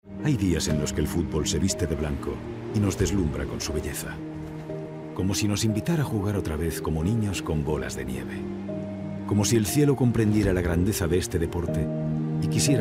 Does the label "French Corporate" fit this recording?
No